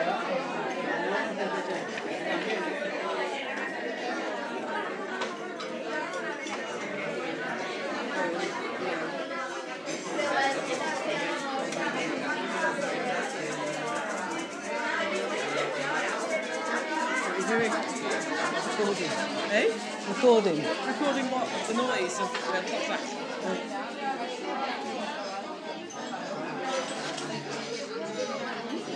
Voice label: Spanish chatter at cafe; c an joan de saigo